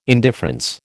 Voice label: "indifference", American